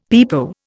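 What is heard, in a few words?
"pepo", Indian English; Dictionary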